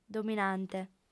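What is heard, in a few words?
"dominante", Sardinian, female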